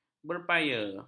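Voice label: "berpaya", Malay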